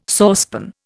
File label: "saucepan", Indian